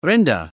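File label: "renda", Malay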